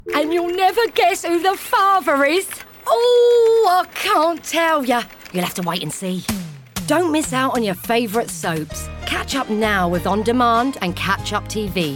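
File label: Distinctive, Upbeat, English; Virgin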